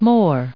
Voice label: "more", us

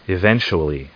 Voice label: "eventually", us

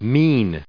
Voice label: "mean", us

